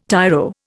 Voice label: "tiro", Indian